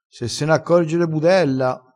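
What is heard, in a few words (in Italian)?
"se se", dialettale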